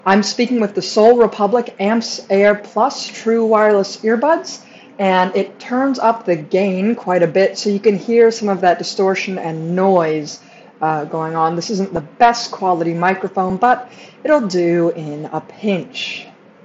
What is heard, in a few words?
Sol Republic Amps Air microphone